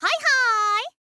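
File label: "hai haai", girl, Japanese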